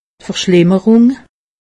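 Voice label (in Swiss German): "verschlimmerung", Alsatian; medecine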